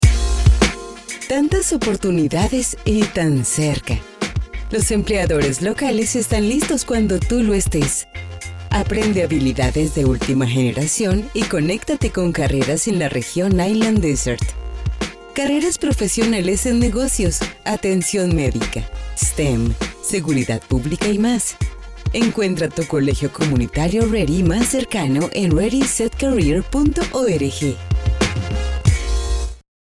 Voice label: Spanish radio; General Audio Ads